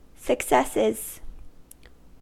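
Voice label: "successes", US English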